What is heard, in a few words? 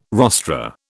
"rostra", British